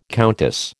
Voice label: "countess", American

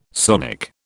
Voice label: "sonic", British

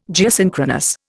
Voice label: "Geosynchronous", Indian

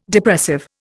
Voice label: "Depressive", Indian

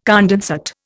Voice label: "condensate", Indian

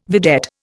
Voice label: "vedette", Indian

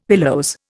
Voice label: "pilose", Indian; Dictionary

